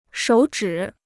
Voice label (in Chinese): "手指", Chinese; shǒu zhǐ; finger